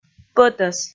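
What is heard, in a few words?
"пӧтӧс", Komi, female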